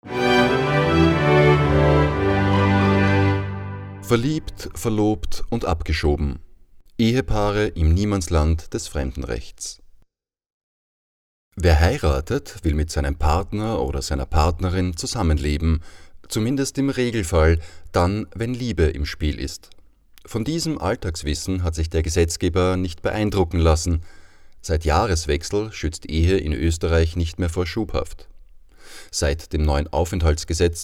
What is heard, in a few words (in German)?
Journal Panorama Beitrag Voice Over; radiojournal